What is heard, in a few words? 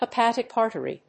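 "Hepatic artery", English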